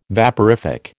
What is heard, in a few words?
"vaporific", American